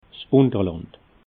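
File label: "unterland", Alsatian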